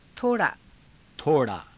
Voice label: "tho raa", Hindi